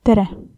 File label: hello, estonian; Tere